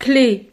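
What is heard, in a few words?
"cle", French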